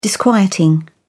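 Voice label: "disquieting", British English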